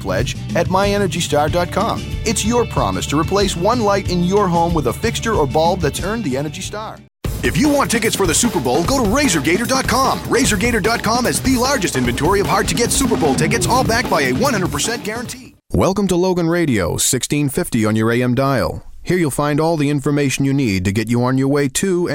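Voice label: US, voice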